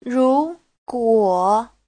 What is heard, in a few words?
"ru2guo3", Chinese